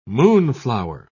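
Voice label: "moonflower", British English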